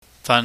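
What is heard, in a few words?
"fan", Cantonese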